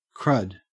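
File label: "crud", Australian English; pronunciations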